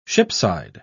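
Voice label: "shipside", British English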